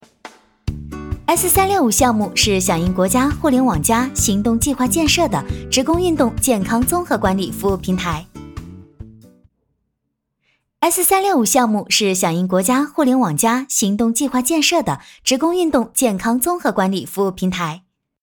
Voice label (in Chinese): 甜美项目